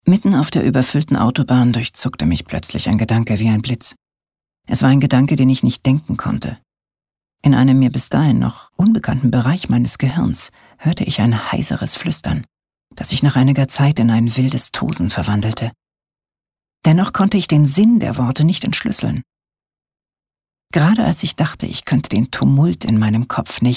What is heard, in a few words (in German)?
Nachricht Geheimnisvoll